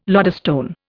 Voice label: "lodestone", Indian